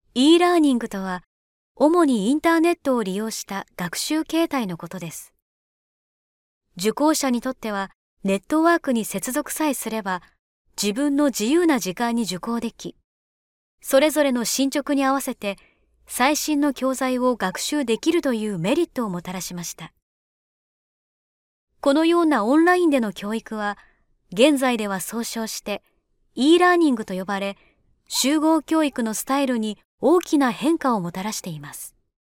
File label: voice firm tone